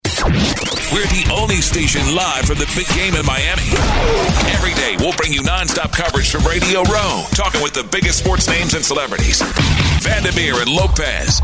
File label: radio promo; radio row; texans